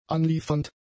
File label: "anliefernd", German; adjectives